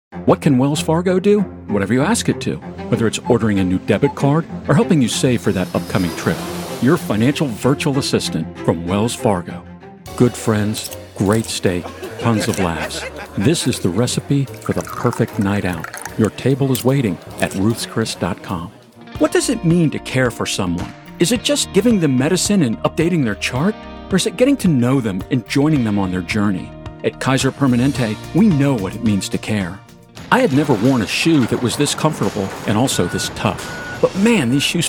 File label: Commercials